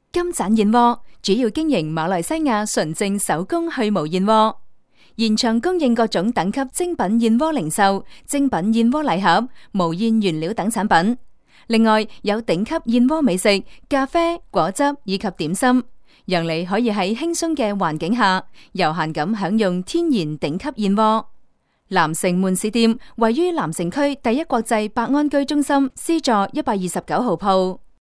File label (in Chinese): 粤女 广告 金盏燕窝